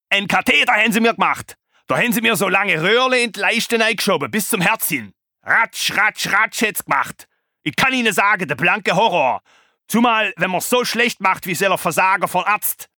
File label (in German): Hoerbuch; Schwarzwaelder Motzkopf; audible